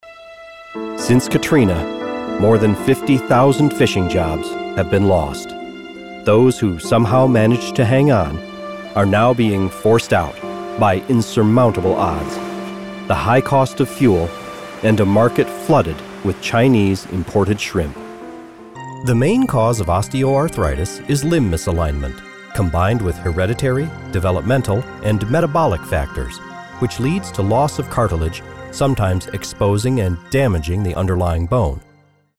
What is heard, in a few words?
Narration